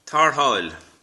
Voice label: help, Irish